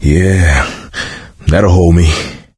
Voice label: pain relief pills, coach, survivor voice; video game; source engine; left 4 dead 2

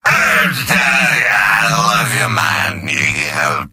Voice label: gibberish; demoman; video games; source engine; tf; mvm

